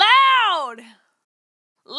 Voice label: Loud - Dry, Vocal Samples; Words